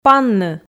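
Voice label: "панны", Komi, female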